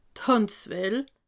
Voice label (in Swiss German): "handzwaal", alsace